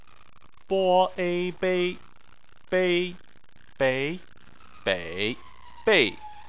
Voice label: "bei", Chinese